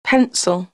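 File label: "pencil", English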